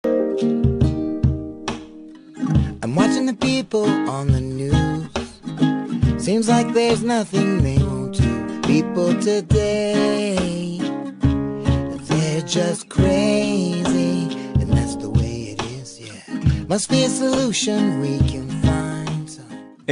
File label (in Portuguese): ficante, Telemensagem Ficante – Voz Masculina